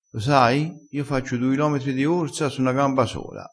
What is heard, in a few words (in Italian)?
"me n avanza", crespinese, vocabolario dialettale